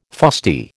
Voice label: "fusty", American

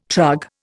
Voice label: "trug", Indian

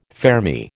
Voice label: "fermi", American

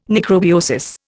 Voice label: "necrobiosis", Indian

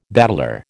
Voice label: "bateleur", American

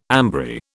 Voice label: "ambry", British

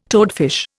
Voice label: "toadfish", Indian

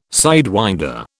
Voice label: "sidewinder", British

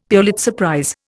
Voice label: "Pulitzer prize", Indian; Dictionary

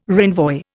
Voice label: "renvoi", Indian

